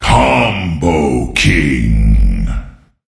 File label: Voices Announcer Reward